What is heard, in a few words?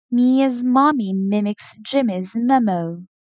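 Tongue Twister m, pronunciation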